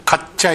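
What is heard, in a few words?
"kaccha", Minamiyamagata dialect Japanese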